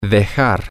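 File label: Spanish, to leave something, male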